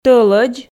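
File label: "тӧлӧдз" Komi, female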